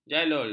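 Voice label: "jaloi", Malay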